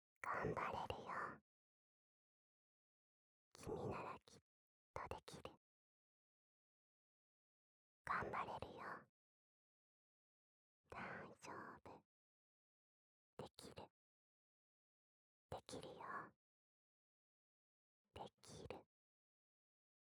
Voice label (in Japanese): 囁き 左耳